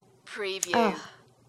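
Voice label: Woman says Ah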